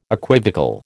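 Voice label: "equivocal", American English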